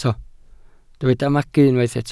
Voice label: "magu", Welsh